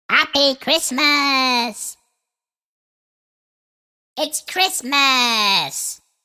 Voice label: Single Cute elf saying ‘Happy Christmas’ and ‘It's Christmas’; Cartoon Voices